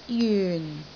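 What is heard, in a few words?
"jyun4", Cantonese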